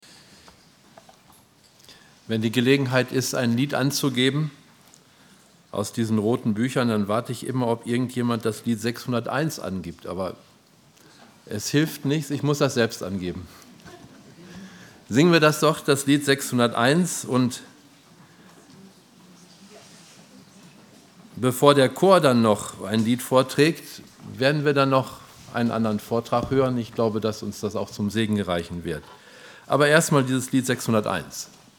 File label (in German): Ansage; Lagerversammlung 2016; Zeugnisstunde